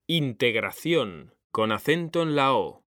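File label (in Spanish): "integración", Spanish, male; mekanta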